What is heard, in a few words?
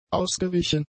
"ausgewichen", German; adjectives